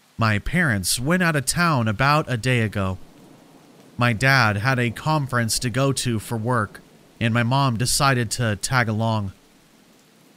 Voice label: shocking forest horror stories scary deep woods stories rain sounds; black screen for sleep